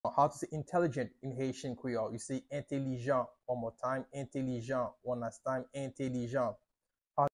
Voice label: How to say Intelligent in Haitian Creole Entelijan pronunciation by a native Haitian Creole